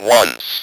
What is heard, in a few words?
"ONCE", Speak & Spell